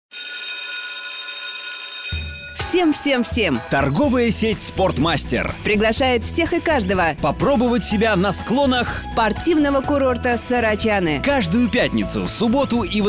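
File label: advert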